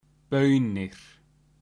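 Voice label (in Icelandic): "baunir", Icelandic; matarhefti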